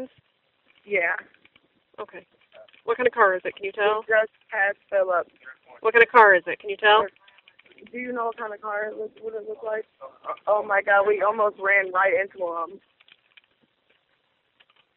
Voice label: 911 call Wrong way driver

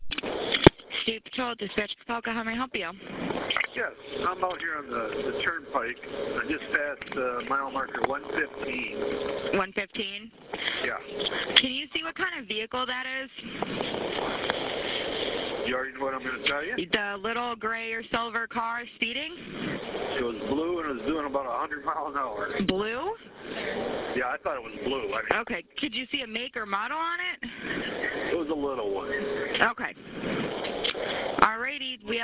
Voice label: 911 call

